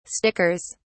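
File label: "stickers", British English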